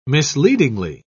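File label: "misleadingly", British English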